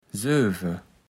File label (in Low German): "söve", Platt